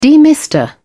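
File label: "demister", British English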